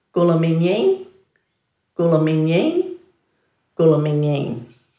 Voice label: "gulaminjiin", Dhurga

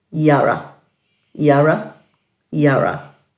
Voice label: "yara-", Dhurga; dulagaal

